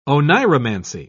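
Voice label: "oneiromancy", English, British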